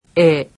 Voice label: japanese alphabet "re"

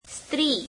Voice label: gujarati woman

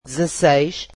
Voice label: portuguese sixteen

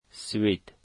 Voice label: Sweden, Dari

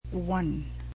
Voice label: "rainy", cebuano

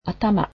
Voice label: "head", japanese

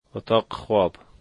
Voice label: bedroom, Dari

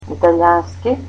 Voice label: Italian, macedonian

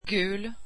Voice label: swedish yellow

